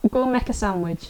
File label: Yorkshire "mek"